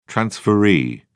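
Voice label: "transferee", British English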